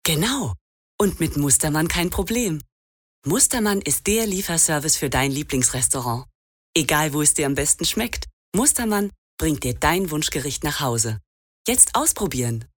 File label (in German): Werbung; Synchron